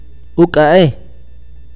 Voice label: horn, akateko